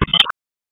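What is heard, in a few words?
EMS Dispatch, p25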